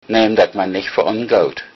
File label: excuse me, low saxon